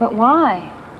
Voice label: female; but why